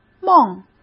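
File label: "梦", Mandarin Chinese; hsk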